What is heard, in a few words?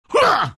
lion pain; dota